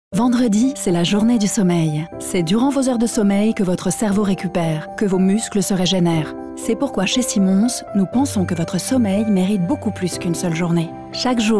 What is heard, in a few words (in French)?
spot radio voix; simmons; rfm; journee du sommeil